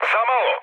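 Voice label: Serbian bomb failure mood med, speech; War Thunder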